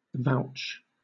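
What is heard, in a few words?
"vouch", British English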